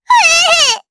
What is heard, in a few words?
Damage, jp; Estelle; kingsraid